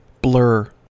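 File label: "blur", English, American accent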